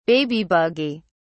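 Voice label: "baby buggy", British English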